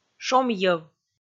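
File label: "шомйӧв", Komi, female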